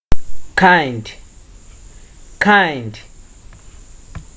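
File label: "kind", Nigerian Pidgin, male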